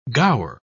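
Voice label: "gaur", British English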